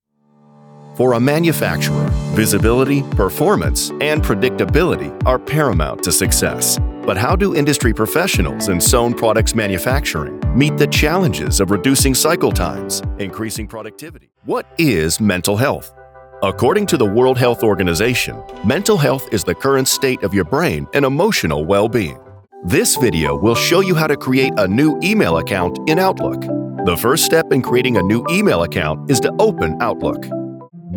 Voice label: explainer

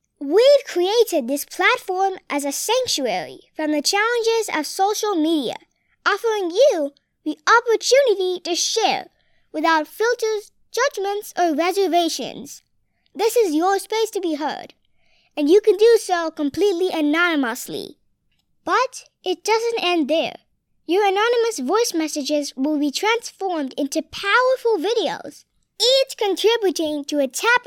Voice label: Explainer longer

